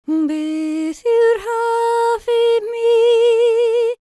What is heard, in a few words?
WELSH F, World Voices